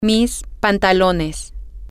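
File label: pants, Spanish, female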